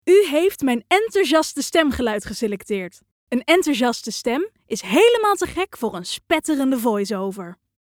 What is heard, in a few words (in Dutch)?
Enthousiast